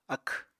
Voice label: "akw", Apatani